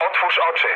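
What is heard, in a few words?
player friendly fire, mood low, Polish speech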